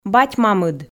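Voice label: "бать-мамыд", Komi, female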